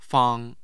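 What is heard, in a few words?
"fang", Mandarin Chinese, tone 1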